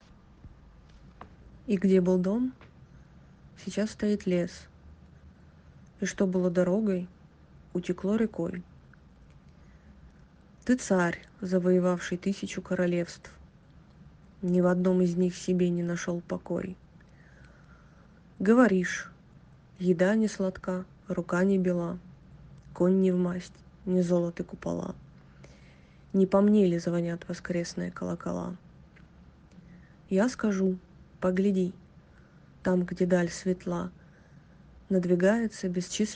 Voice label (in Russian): голосовое